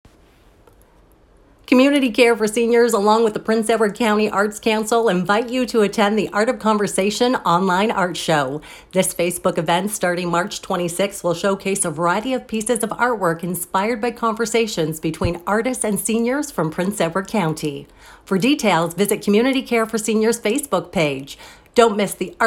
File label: Art of Conversation radio ad